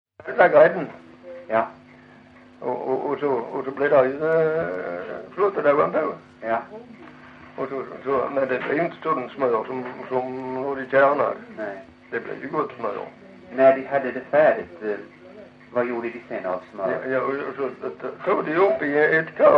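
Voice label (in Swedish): Lilla Harrie Skåne, dialekter svenska sagor; Folkminnen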